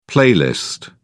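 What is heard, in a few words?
"playlist", British English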